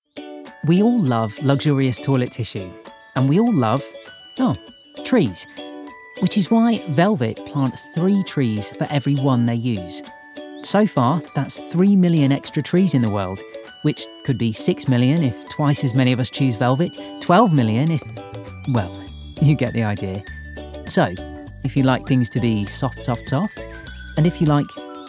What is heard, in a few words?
Commercial